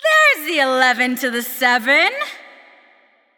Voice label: Announcer Spree 11 Kills; Le Tigress; Paladins